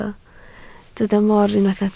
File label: "moor", Welsh